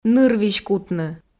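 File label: "нырвизь кутны", Komi, female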